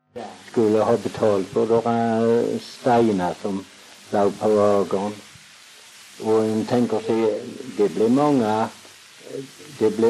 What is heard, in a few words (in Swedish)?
Väsby SK, dialekter; Folkminnen; Svenska sagor